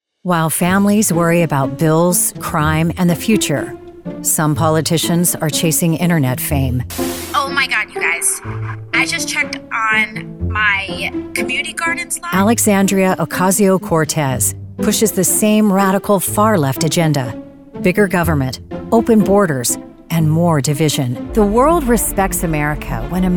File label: Republican Voiceover